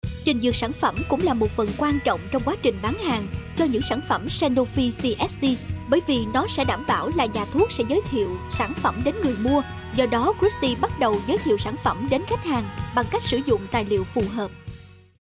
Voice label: Vietnam formal

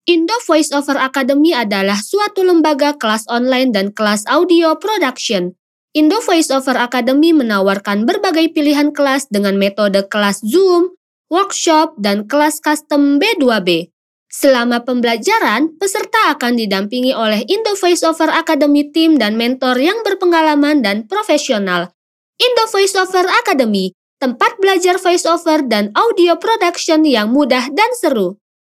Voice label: FORMAL INDONESIA

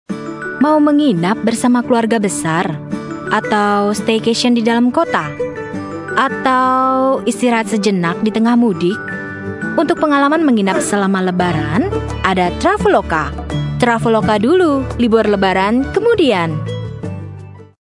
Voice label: Indonesia casual

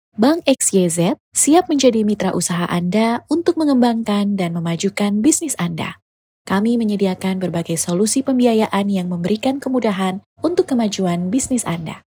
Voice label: FORMAL INDONESIA

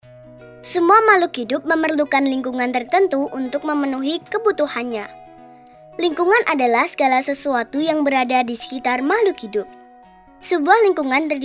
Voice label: KIDS INDO

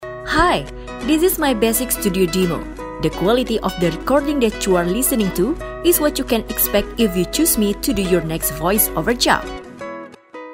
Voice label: ENGLISH INTRODUCTION

